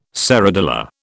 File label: "serradilla", British